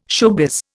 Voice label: "showbiz", Indian

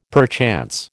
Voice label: "perchance", American

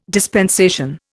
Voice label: "dispensation", Indian

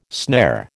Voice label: "snare", American

